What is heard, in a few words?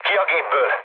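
Hungarian bailout mood low, speech; War Thunder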